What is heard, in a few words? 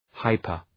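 "hyper", English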